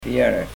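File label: "viere", Pennsylvania Dutch; 4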